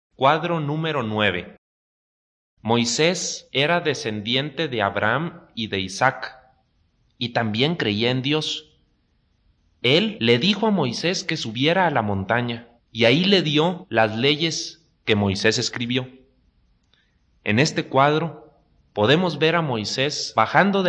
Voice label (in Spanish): good news spanish mexico